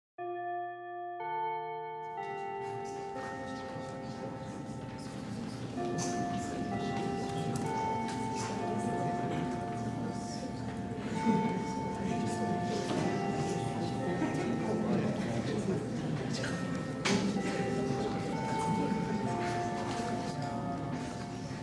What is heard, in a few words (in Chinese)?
吃午餐, ASMR; 和彩芽、希實吃午餐